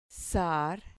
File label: "sar", Spanish syllable